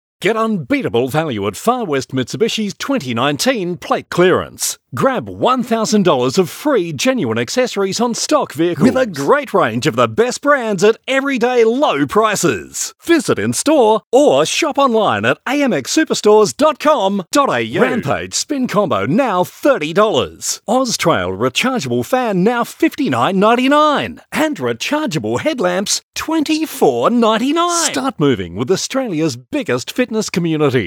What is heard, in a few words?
Hard Sell